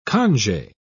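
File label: "conge", British English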